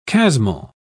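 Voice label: "chasmal", British English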